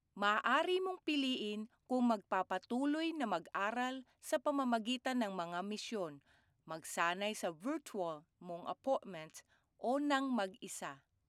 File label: tagalog e-learning